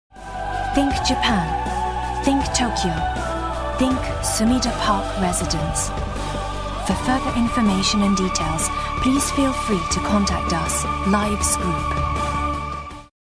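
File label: narration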